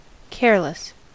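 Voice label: "careless" English, American accent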